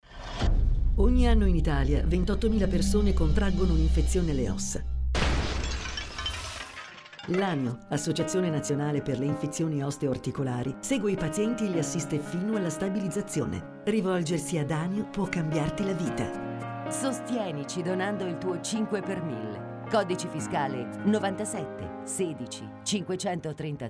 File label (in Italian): spot